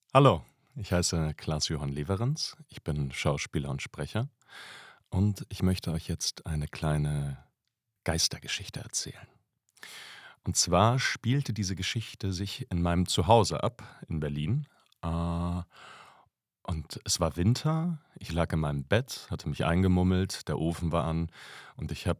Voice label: Natuerliche Stimme

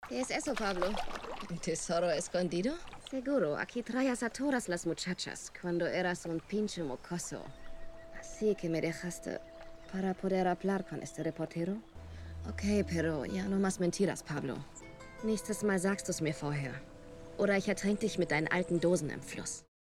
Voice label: Spanisch; Mexiko; Narcos

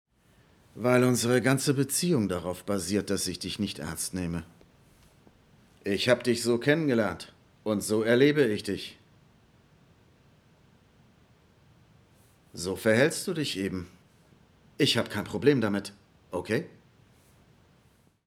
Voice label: DE Synchron

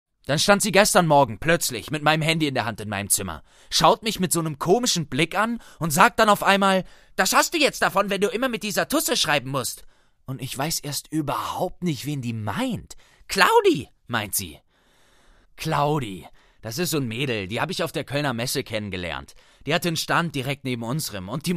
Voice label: jugendlicher

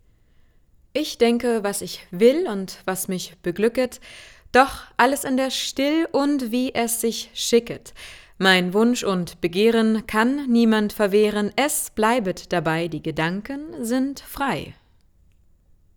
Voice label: Sachlich

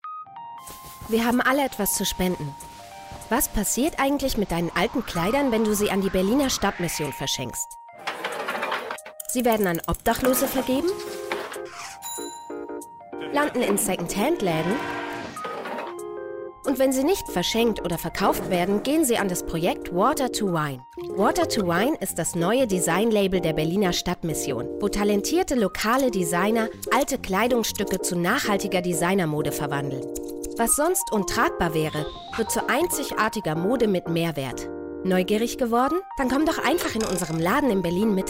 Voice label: Imagefilm

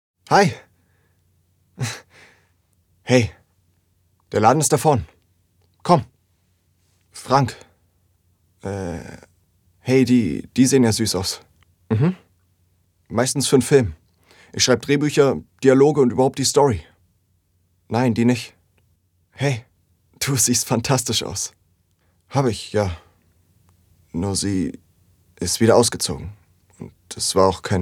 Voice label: Synchron; locker

